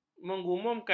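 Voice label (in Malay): "mengumumkan", Malay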